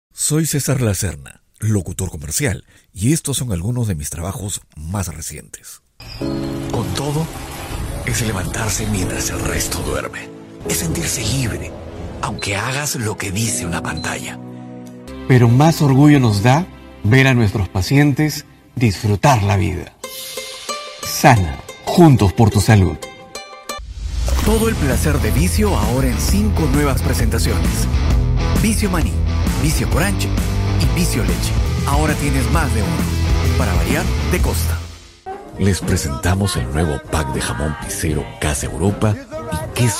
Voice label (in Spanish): Reel de Locucion